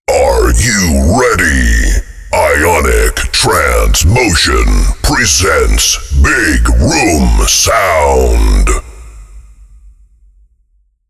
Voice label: big room sound; Clubs